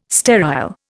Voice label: "sterile", Indian